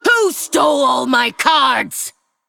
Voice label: Female Forsaken No Cards